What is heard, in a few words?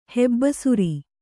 "ಹೆಬ್ಬಸುರಿ", kannada